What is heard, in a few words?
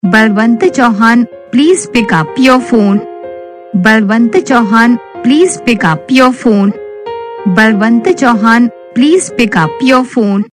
pick up phone saying, Ringtones